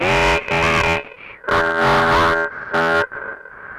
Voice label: EMS voice; Syntec; Wall of Sounds; TECHNO-BOX